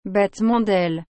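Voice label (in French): "battement d’aile", French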